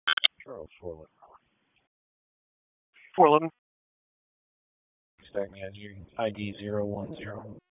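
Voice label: Police Southeast District Dispatch; Raleigh Police Southeast District Disp; wake p25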